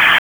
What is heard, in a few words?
Raleigh Police Northeast District Tac, p25; wake